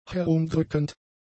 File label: "herumdrückend", German; adjectives